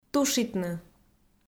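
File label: "тушитны", Komi, female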